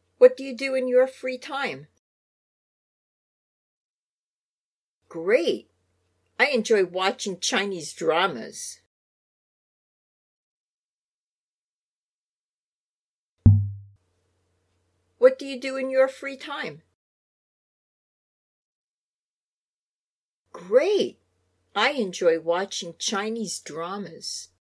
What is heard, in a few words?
Dialog; Lesson 1